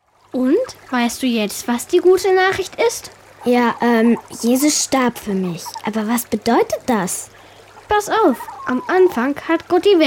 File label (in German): Hoerszene; Die Gute Nachricht